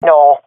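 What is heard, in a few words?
round losing, swat female; Counter Strike Model Pack; CS:GO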